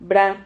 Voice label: "vra", Silabas

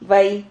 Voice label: "vai", Silabas

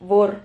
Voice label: "vor", Silabas

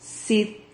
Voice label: "cid", Silabas

